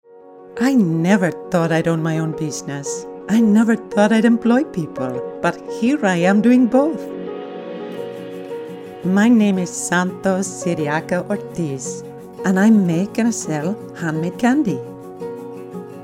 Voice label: Spanish